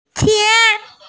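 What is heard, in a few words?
"tian2", Mandarin Chinese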